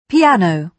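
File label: "piano", British English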